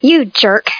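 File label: "acht" German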